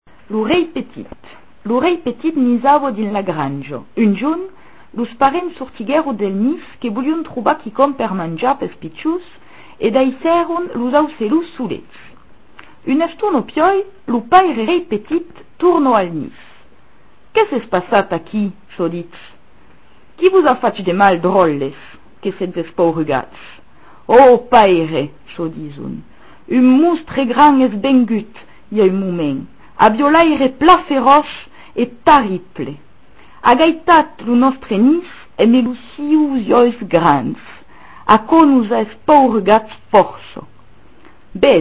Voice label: occitan